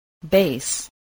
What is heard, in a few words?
"base", English